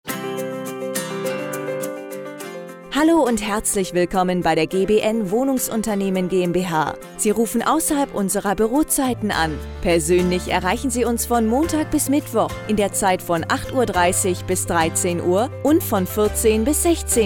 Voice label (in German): Anrufbeantworteransage